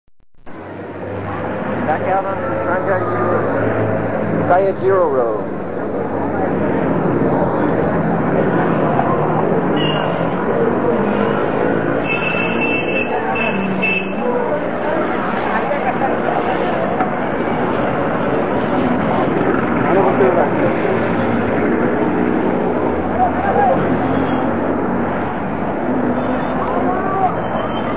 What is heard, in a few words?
mysore street vendors; india